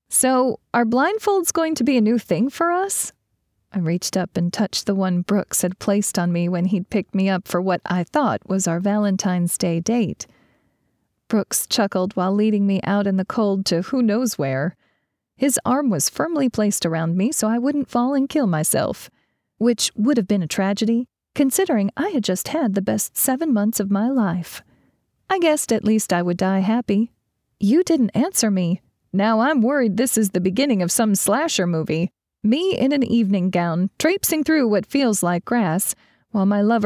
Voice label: FM dialogue light Southern accents Romance